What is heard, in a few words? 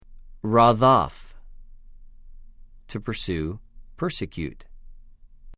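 "רָדַף", Hebrew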